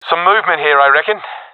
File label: sees enemy, professional epic; vo; Source Model Packs; Counter Strike Model Pack; CS2